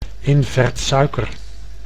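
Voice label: "invertsuiker", Dutch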